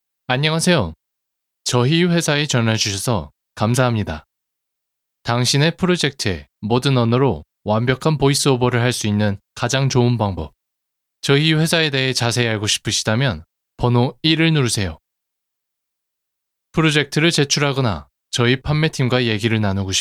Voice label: Korean M IVR

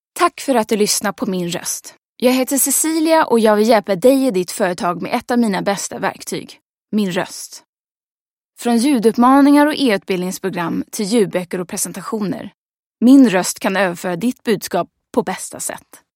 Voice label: Swedish F Corporate

